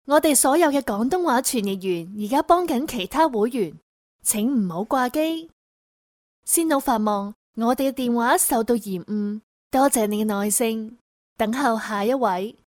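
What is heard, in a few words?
Chinese Canada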